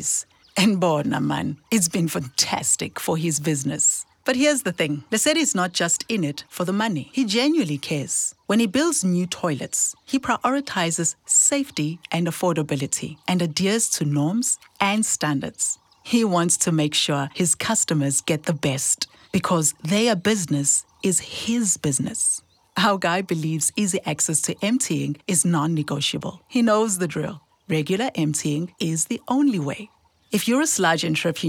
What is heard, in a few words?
Service Providers Containment English; Sanitation